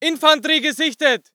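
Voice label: voice german infantry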